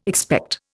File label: "expect", Indian